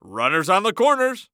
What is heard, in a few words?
Sports Human Voice Male Baseball Announcer; Runners On The Corners; AdobeCC